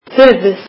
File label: icelandic head